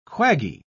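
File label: "quaggy", English, British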